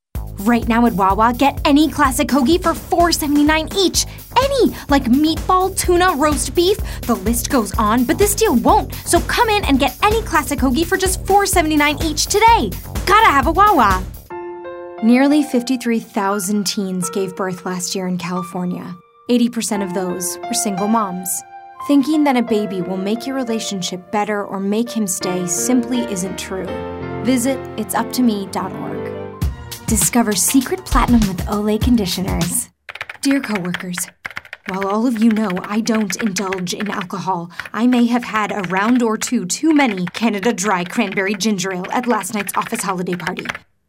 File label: Commercial